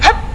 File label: hut! (jump); Renegade; Shelly Merfly